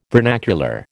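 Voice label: "vernacular", American English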